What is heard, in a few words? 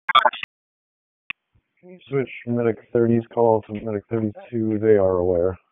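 EMS Dispatch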